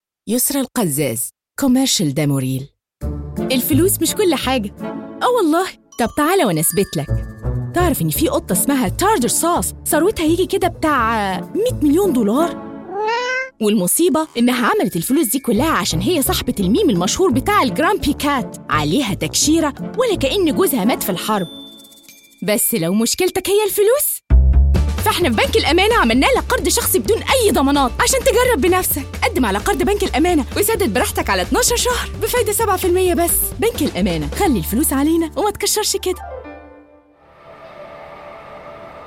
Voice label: Egyptian Commercial